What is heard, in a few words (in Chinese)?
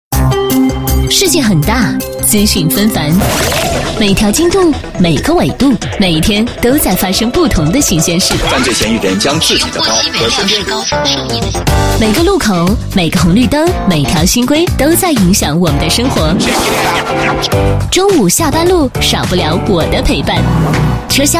国语女声配音，栏目包装